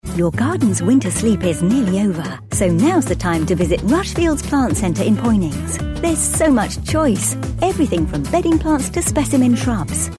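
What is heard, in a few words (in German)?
English UK Freundlich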